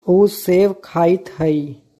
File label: Oo seu khait hai., Magahi